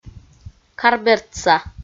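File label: "карбердса", Komi, female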